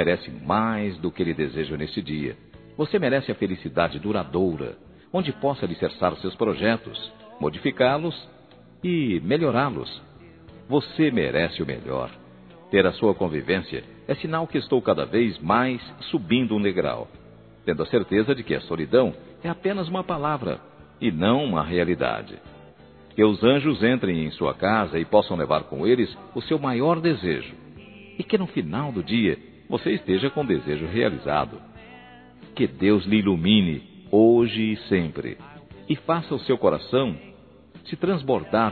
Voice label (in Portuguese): Aniv. de Nora, M